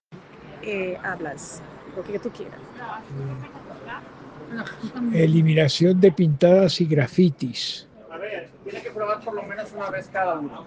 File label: Voice message